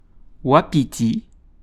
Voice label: "wapiti", French

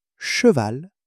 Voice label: "cheval", French

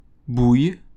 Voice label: "bouille", French

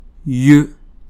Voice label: [j], French

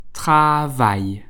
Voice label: "Travaille", French

